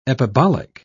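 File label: "epibolic", English, British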